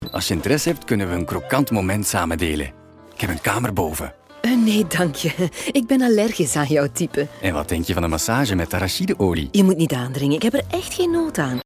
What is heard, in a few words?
Acting